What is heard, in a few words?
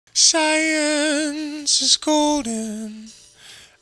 De Essed Vox